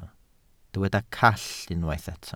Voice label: "call", Welsh

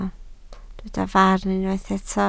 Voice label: "farn" Welsh

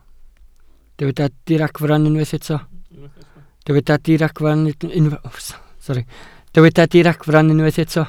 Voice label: "diragfarn" Welsh

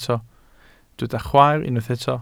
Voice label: "chwaer", Welsh

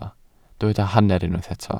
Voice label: "hanner", Welsh

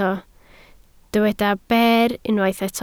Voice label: "ber", Welsh

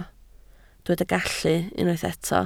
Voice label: "gallu" Welsh

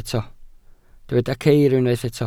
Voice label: "ceir", Welsh

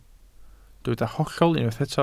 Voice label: "hollol" Welsh

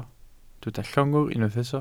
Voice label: "llongwr", Welsh

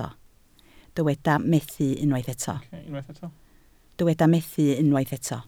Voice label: "methu" Welsh

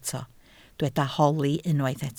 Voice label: "holi", Welsh

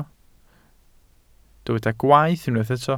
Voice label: "gwaeth", Welsh

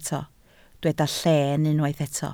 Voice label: "lleen" Welsh

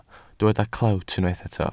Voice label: "clewt" Welsh

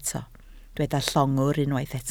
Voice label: "llongwr" Welsh

